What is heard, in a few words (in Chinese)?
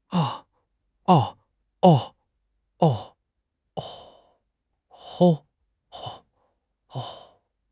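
"兜", Chinese; HSK6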